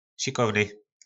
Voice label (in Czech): "Šikovny", nářečí Po našimu; Hodný